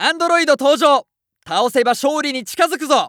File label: Announce New Boss Appear, JPN; Eternal Return